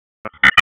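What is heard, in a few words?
Police Northeast District Tactical 1, p25; Raleigh; wake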